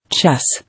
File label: "Chess", English